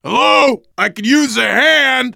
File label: ledge hang start, voice, biker